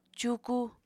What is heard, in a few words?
"cuuku", Apatani